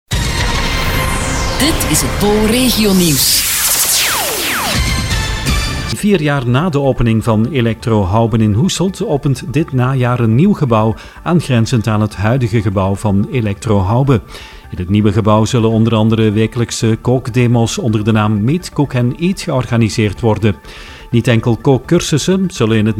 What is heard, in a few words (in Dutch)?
radio spotje; elektro hauben